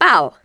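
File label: Archer Yes Attack, Czech; wowpreklad; czwow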